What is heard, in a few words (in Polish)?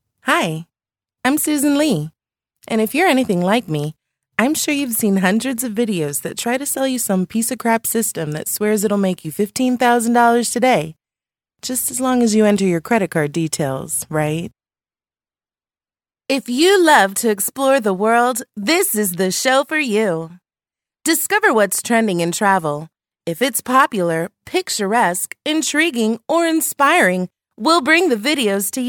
Amerykanski